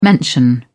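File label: "mention", British English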